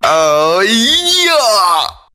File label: "oh yeah", Voice